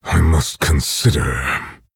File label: Orc Emote Thinking